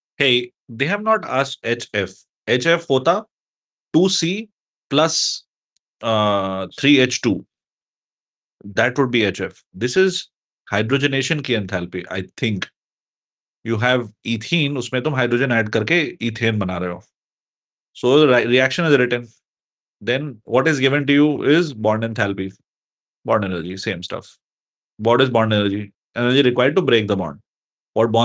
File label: voice message